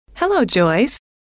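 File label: "hello", female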